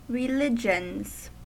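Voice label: "religions", US English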